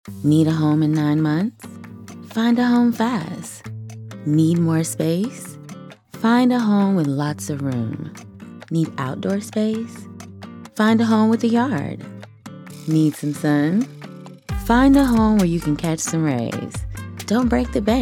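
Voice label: Realtor with music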